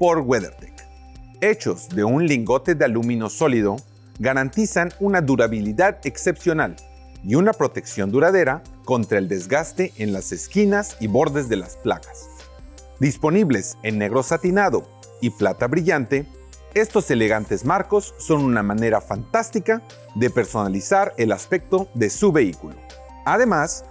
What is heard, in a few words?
Billet License Plate Frames Spanish